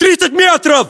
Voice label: threat distance, ru; localized english

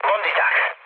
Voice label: enemy detected, speech hun; War Thunder

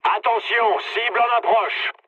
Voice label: near waypoint mood low, speech fr; War Thunder

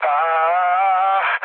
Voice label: damaged pilot, mood low, speech hun; War Thunder

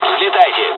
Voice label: fly, dialogs russian; War Thunder

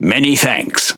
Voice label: Speech Quick Commands Thank You, EN GB; World Of Warships

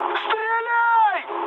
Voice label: attack position, mood high, speech ru

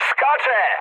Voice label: bailout mood med, speech pol; War Thunder

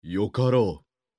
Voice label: Neutral YES; Civ5; Oda Nobunaga

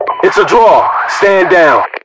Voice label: draw, US voiceovers

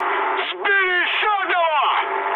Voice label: player killed enemy, mood med, speech ru

